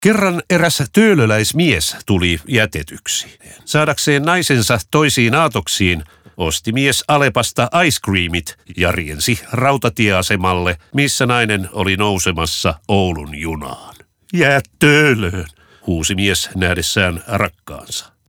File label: radio; alepa; jaatolo